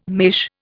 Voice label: "mesh", Indian